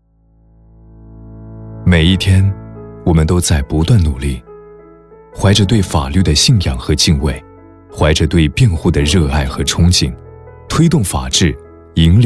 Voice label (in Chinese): 男 专题 大气 品质; 万航律师事务所